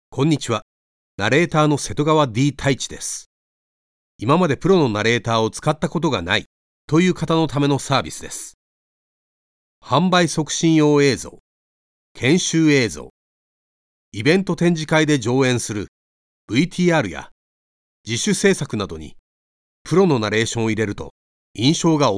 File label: japanese commercial; Japonés; locutor de doblaje en japonés. Actor de doblaje y narrador.